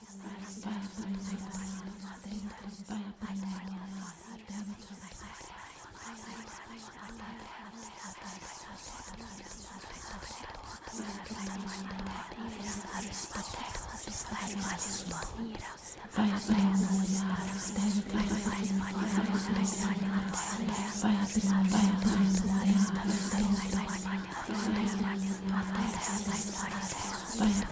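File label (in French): extrait voix impro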